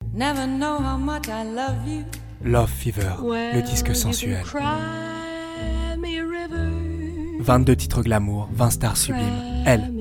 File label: FRENCH COMMECIAL